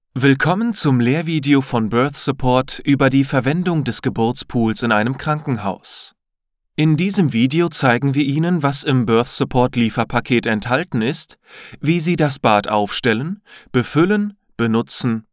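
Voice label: e-learning, German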